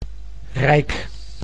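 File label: "rijk", Dutch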